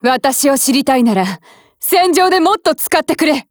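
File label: DIALOGUE, Japanese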